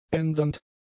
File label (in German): "ändernd", German; adjectives